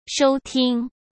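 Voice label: "收听", Mandarin Chinese; chinese flashcards; hsk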